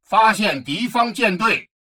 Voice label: advisor notification hostile fleet detected, chinese; Stellaris